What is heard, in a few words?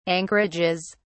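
"anchorages", English, British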